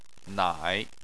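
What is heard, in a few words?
"nai", Chinese, third tone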